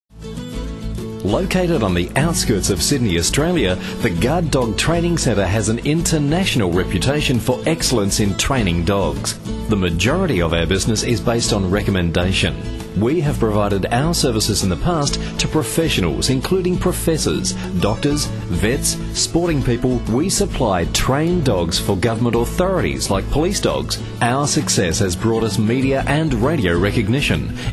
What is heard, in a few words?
Voice Ad